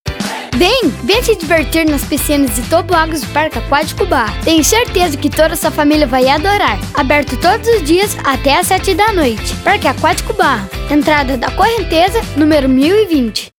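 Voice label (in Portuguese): Voz Infantil, Português - Brasileiro, Masculino (13 anos), Comercial; Parque Aquático Barra - Demo Comercial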